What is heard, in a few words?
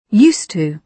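"used to", British English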